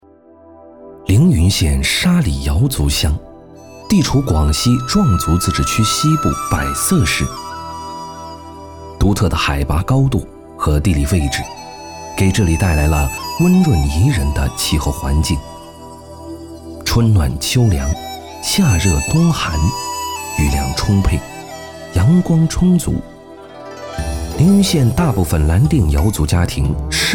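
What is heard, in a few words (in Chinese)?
男国大音-非遗; 乡村美景; 蓝靛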